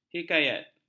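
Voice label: "hikayat", Malay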